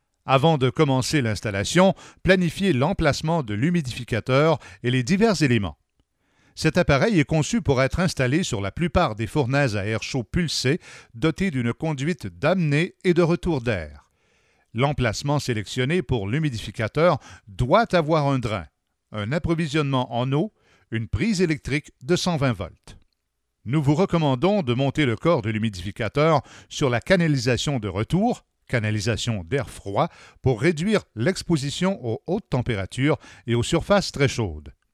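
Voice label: French Canada, male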